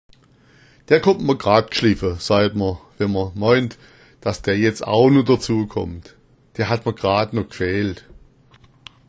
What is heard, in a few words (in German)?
gschliffa, schwaebisch